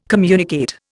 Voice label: "communicate", Indian

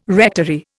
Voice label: "rectory", Indian

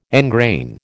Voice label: "engrain", American

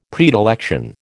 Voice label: "predilection", American

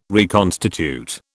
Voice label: "reconstitute", British

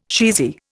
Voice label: "cheesy", Indian

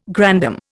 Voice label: "grandam", Indian

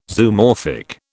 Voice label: "Zoomorphic", British

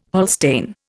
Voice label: "Holstein", Indian

